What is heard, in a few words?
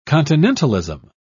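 "continentalism", British English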